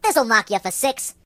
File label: "Watch this", Mobster; hedgewars